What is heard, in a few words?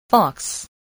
"fox", English voice, spelling